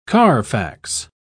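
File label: "carfax", British English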